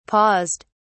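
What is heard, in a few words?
"paused", British English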